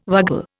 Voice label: "vagal", Indian